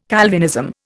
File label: "Calvinism", Indian